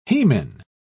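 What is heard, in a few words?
"hemin", British English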